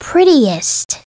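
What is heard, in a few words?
"prettiest", English; SoftKey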